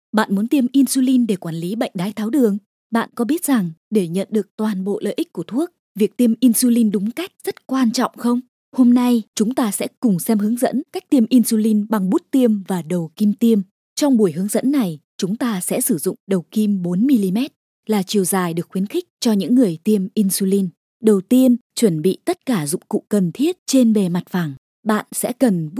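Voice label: vietnamese medical narration, female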